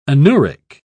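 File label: "anuric", British English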